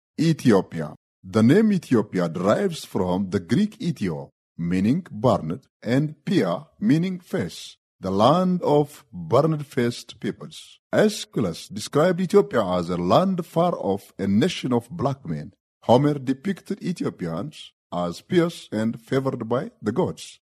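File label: Amharic, male, English accented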